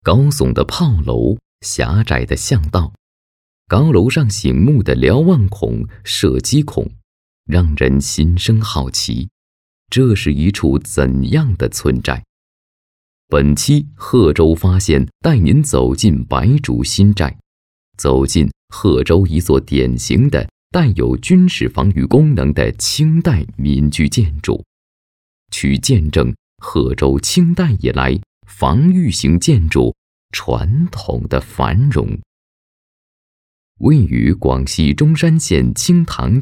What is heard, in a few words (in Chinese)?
男 专题讲述 人声